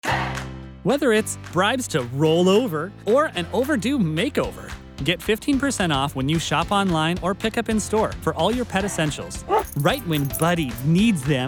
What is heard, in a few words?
general commercial; demo